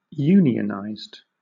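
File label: "unionized", British English; pronunciations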